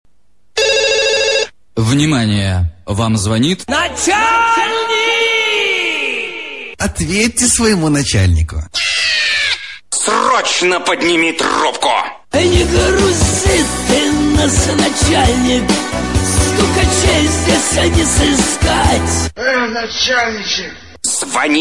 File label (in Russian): vnimanie vam zvonit nachalnik, russian